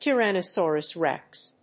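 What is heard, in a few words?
"Tyrannosaurus rex", English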